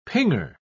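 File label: "pinger", British English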